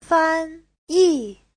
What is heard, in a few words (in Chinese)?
"fan1yi4", Chinese